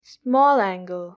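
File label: "small angle", English; grade3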